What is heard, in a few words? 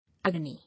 "Agony", English